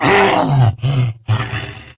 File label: Pain Grineer Wolf, en; Battle Barks